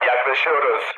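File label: Turkish near waypoint, mood low, speech; War Thunder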